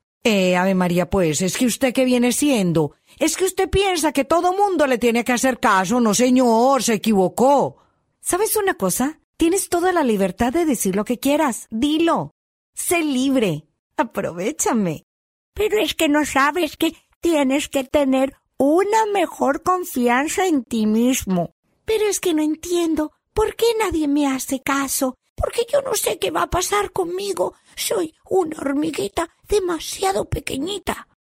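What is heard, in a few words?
Spanish Latin America female character; spanish americas voice over talent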